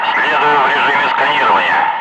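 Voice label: Russian speech, Ka-50 Wingman; DCS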